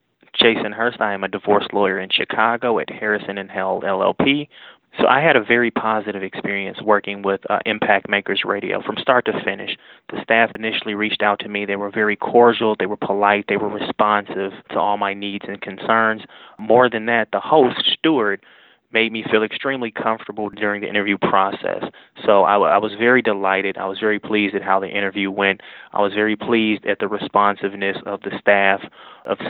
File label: Testimonial; Impact Makers Radio